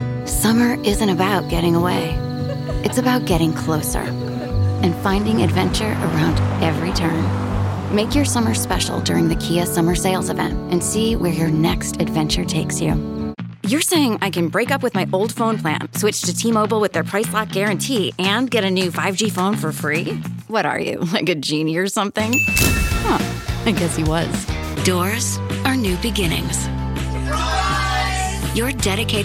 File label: Commercial